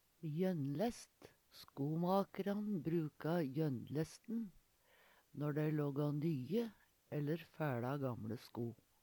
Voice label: jonnlest, Numedalsmål

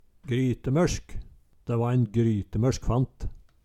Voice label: grytemørsk, Numedalsmål

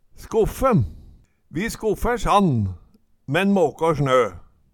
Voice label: "skoffe", Numedalsmål; Vi skoffær sand, men måkår snø

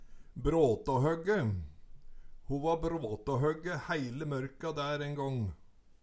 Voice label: bratahogge, Numedalsmål

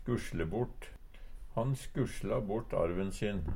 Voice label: skusLe bort, Numedalsmål; rote bort; Uttrykk

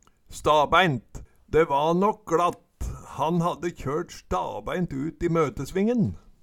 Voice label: stabæint, Numedalsmål

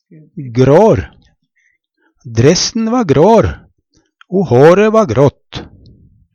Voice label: grår, Numedalsmål

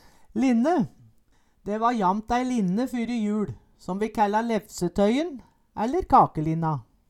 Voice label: linne, Numedalsmål

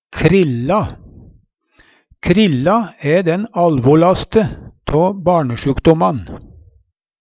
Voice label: krilla, Numedalsmål

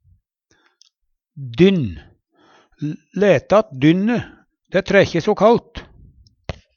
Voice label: "dynn", Numedalsmål; Substantiv hokjønn

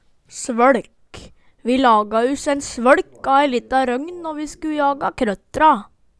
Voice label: svøLk, Numedalsmål

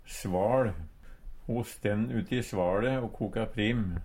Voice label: sval, Numedalsmål; Substantiv inkjekjønn

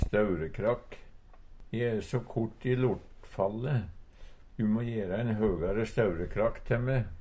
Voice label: staurekrakk, Numedalsmål; Eksempel på bruk E e so kort i lortefalle, du må gjera ein høgare staurekrakk te me.

